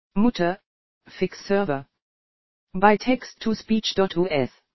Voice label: text2speech